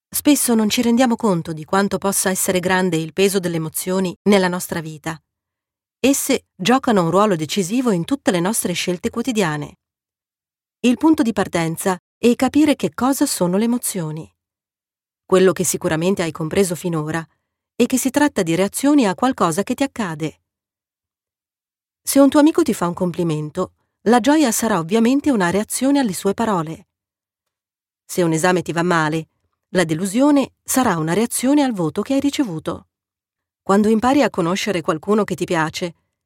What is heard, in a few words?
italian audiobooks